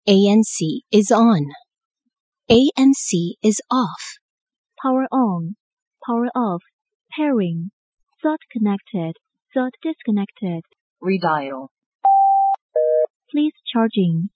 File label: prompt audio